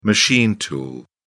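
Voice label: "machine tool", British English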